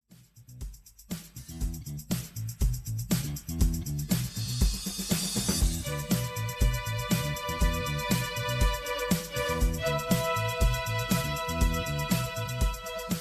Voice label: podcast; underground railroad